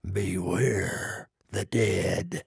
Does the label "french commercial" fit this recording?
No